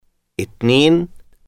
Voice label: number two arabic

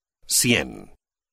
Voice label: number hundred Spanish

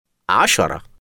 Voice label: number ten arabic

